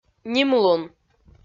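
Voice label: "нимлун", Komi-Zyrian, female; kpv audio dict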